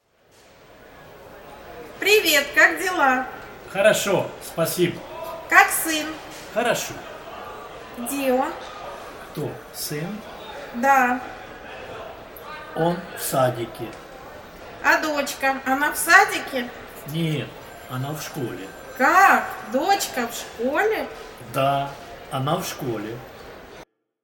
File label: dialog